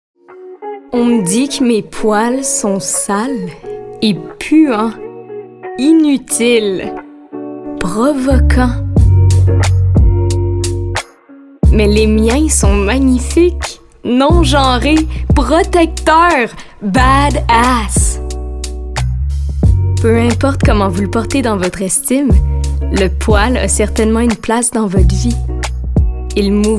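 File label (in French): Démo Québécois Pub; Maipoils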